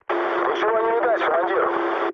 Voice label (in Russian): chat, speech ru; War Thunder